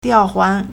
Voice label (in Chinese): "diao4huan2", Chinese